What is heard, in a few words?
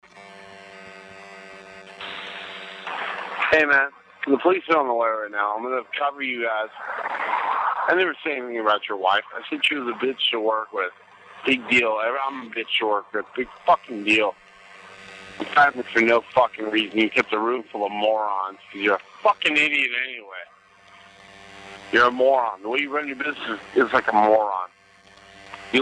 conversation; Phone Conversation 3